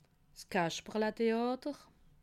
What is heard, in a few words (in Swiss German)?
"kaschperletheater", alsace